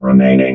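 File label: "remaining", robot voice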